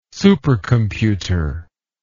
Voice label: "supercomputer", English word